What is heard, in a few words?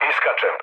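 Serbian bailout mood low, speech; War Thunder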